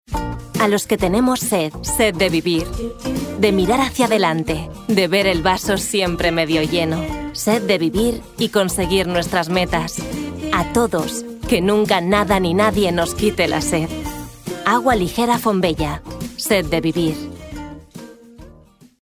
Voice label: female spanish water comercial

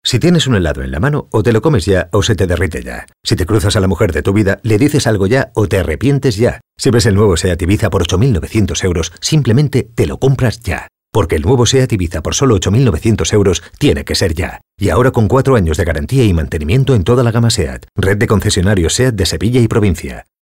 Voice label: male spanish car comercial

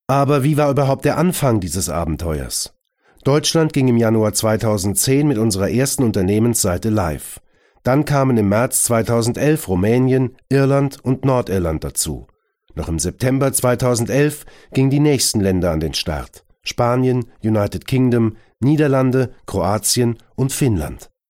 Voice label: male german corporate comercial; ideasonora

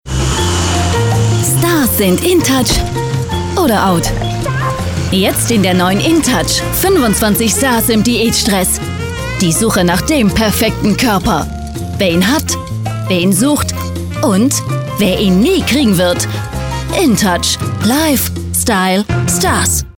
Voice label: female german commercial; ideasonora

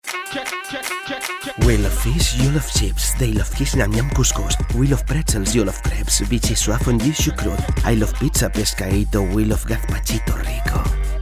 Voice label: male english airline comercial; ideasonora

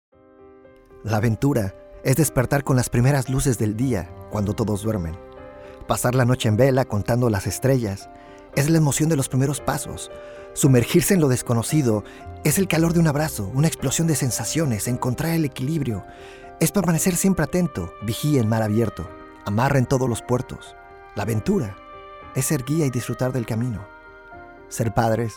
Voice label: male latam mexican comercial; ideasonora

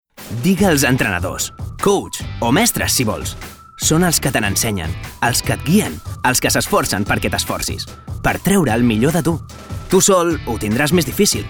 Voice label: male catalan tv comercial; ideasonora

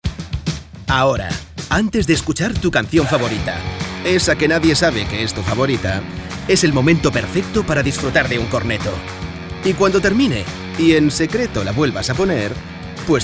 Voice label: male spanish ice cream comercial; ideasonora

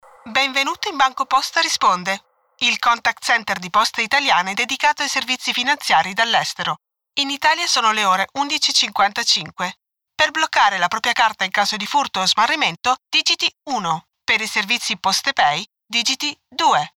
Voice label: female italian comercial; ideasonora

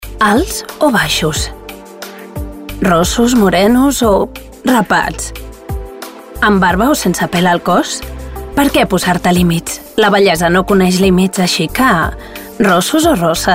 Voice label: female catalan cosmetics comercial

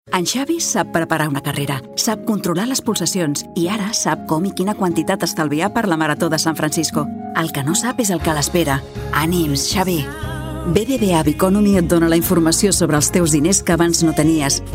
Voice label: female catalan bank comercial; ideasonora

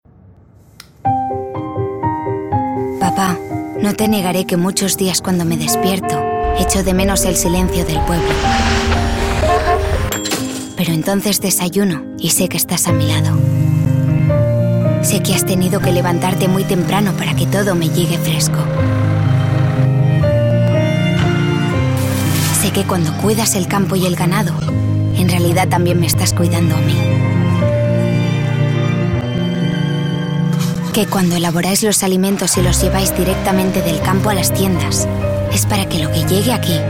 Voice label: female spanish tv comercial; ideasonora

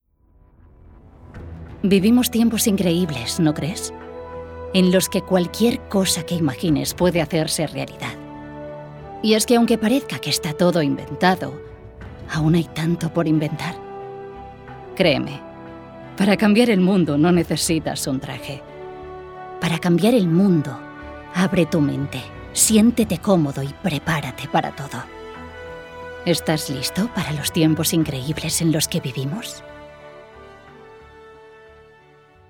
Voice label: female spanish commercial; ideasonora

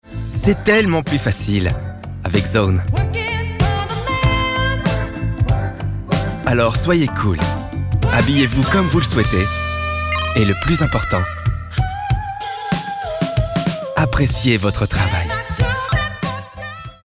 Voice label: male french comercial; zone; ideasonora

